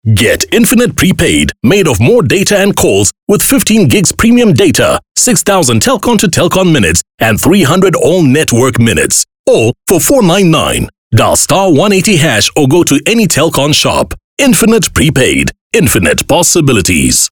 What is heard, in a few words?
English Hard Sell; OSM Talent